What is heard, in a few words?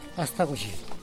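"astagudxi", Teotitlán del Valle Zapotec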